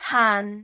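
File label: "haan4", Cantonese; microsoftHiuMaan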